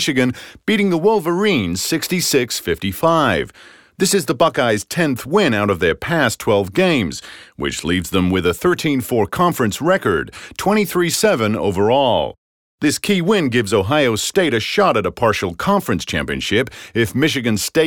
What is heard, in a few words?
Sports News USA